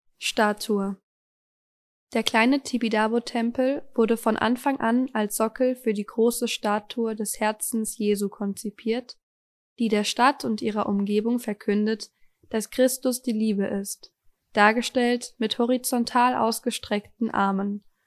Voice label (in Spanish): Audio Aleman; TIBIDABO; audioguide deutscher